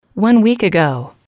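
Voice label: "one week ago", English, female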